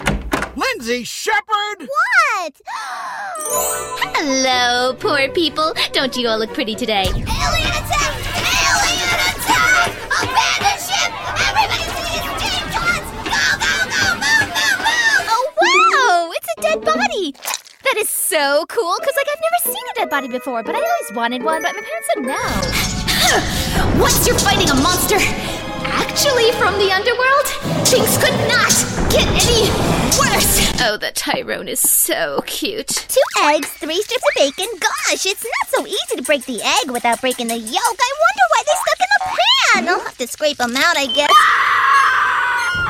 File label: Animation Characters Cartoons